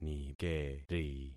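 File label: "nikeri", concatenated syllables; Affixing experiment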